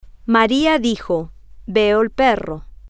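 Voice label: Maria dijo veo el perro, Spanish, female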